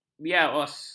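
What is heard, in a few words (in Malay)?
"biawas", Malay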